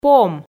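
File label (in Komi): "пом", Komi, female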